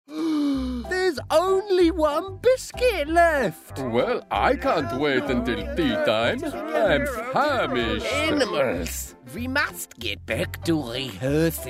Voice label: Animation reel

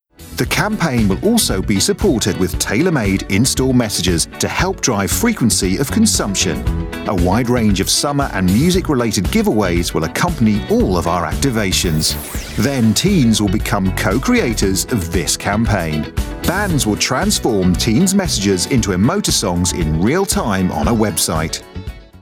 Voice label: Corporate; Coke

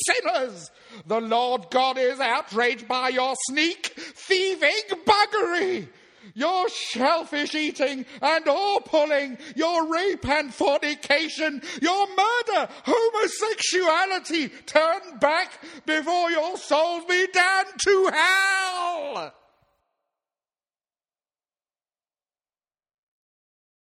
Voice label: Street Preacher

